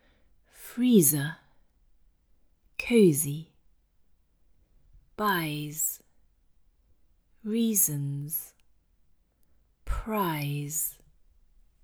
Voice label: Cantonese, english pronunciation errors made by cantonese; Cantonese Speakers